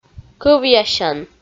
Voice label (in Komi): "кӧвъясян" Komi